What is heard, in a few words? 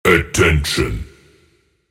attention deep voice, echo